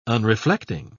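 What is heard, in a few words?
"unreflecting", British English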